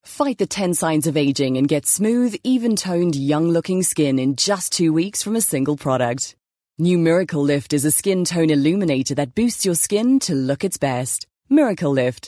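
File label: English South Africa, General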